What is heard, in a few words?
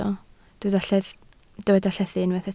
"llethu", Welsh